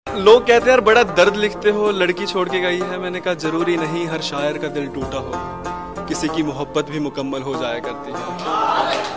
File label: Sad Shayari Ringtone